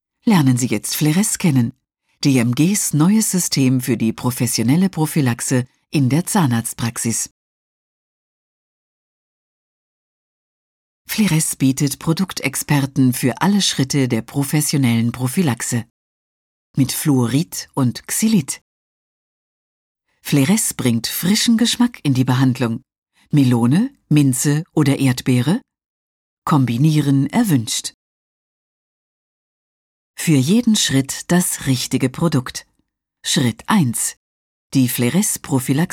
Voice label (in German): Werbung Neutral